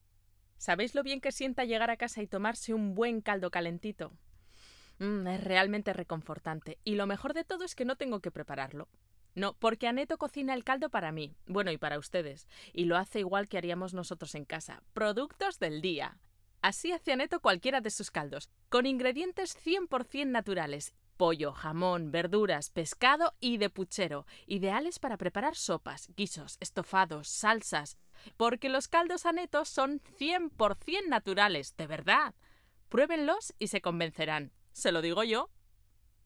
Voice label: castellano; aneto

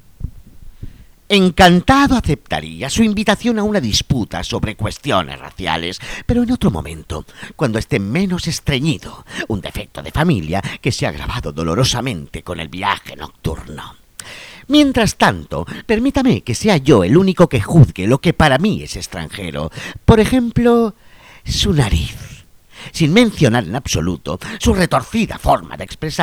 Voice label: Audio Interpretacion

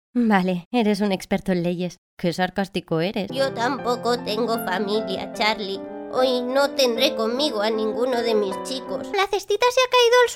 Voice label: audibook

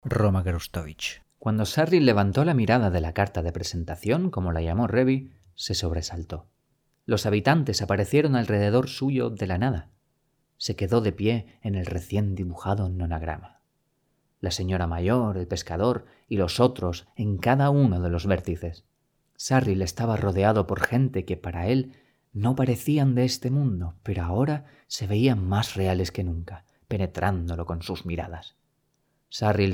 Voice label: Espanol; Nova Actors

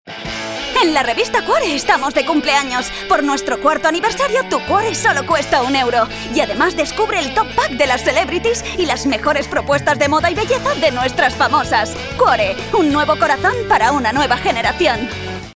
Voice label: Aniversario Castellano; Cuore

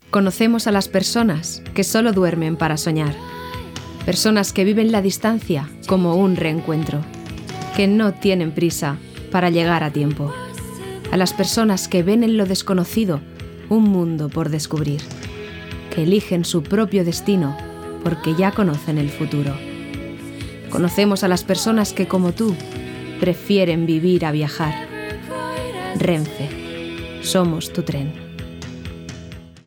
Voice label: Renfe Castellano

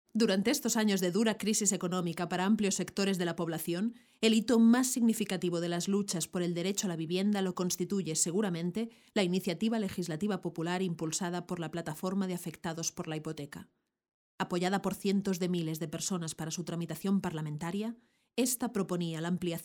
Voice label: documental castellano

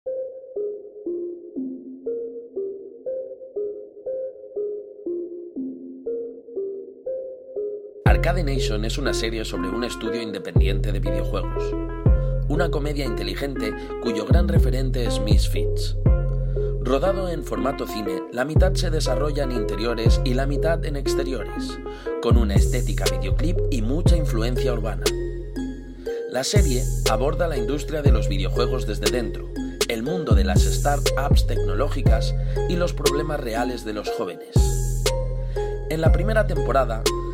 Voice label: Descripción proyecto castellano; Arcade Nation

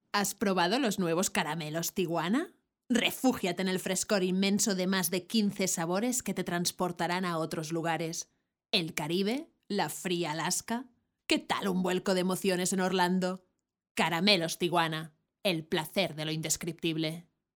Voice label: publicidad castellano

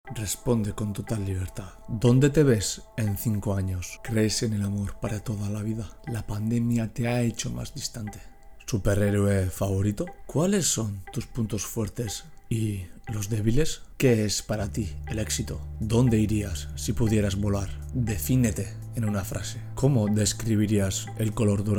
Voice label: anuncio castellano

